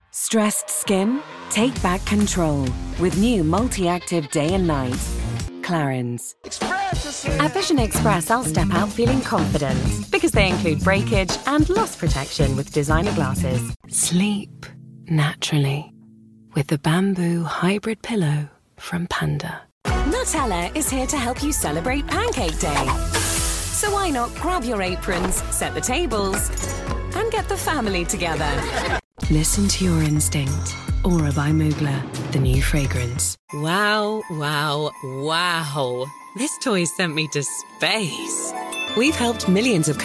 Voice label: commercial voice reel